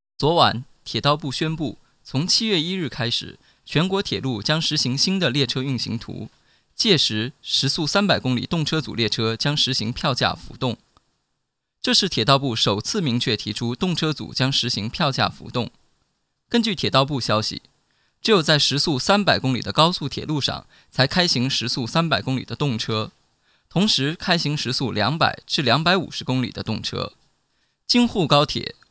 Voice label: news